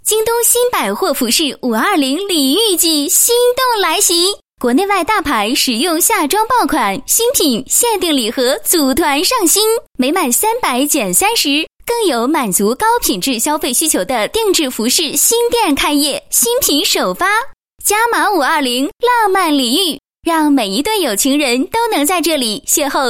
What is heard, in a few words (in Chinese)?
女 活力广告 服饰; 京东新百货服饰520礼遇季心动来袭